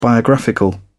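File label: "biographical", English, uk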